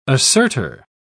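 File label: "assertor", British English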